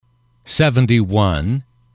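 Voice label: "seventy one", English, male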